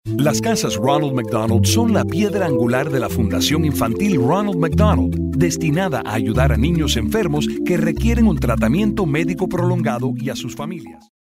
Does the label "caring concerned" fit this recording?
Yes